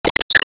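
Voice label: Voice Emotion Pain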